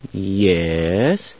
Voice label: "yees", scientist; source engine; hl1; video games